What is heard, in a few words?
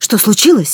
enemy, characters voice human woman fight; Stalker Anomaly